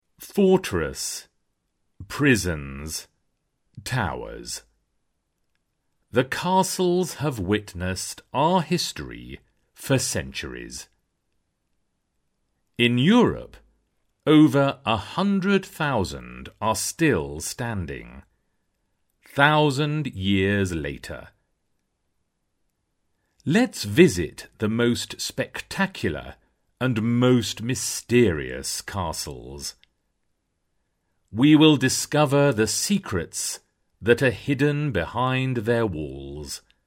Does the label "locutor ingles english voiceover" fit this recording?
Yes